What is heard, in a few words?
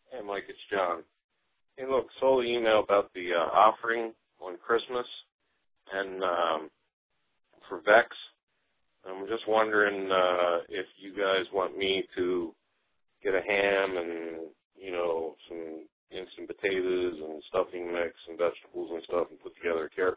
voicemail